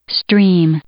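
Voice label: "stream", English, female; noun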